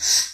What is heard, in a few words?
VOICE, VOICE-FX